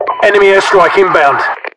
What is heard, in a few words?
enemy air, UK; english; voiceovers; mpvoice